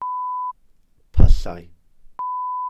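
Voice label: "pyllau", Welsh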